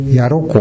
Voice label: "yaroko", minamiyamagata